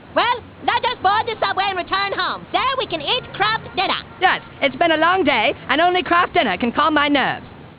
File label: calm